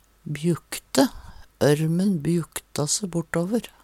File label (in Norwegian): bjukte, Numedalsmål; Verb; Ørmen bjukta se bortåver.